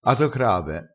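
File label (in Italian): "atocrave", vocabolario dialettale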